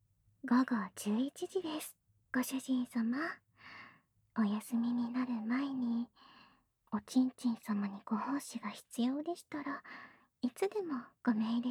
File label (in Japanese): 時報ボイス 午後11時です; 好感MAX従順奴隷メイド~甘トロ媚び媚び奉仕~